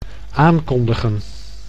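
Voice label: "aankondigen", Dutch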